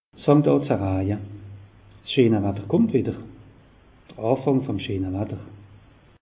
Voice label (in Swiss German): "fangt an ze raje", alsace; meteo